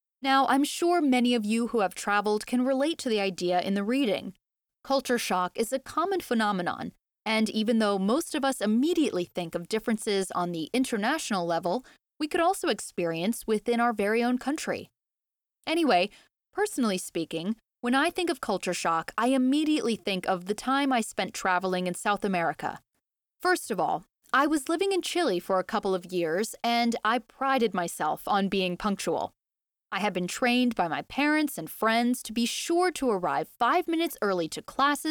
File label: student conversation; toefl; donation question type 3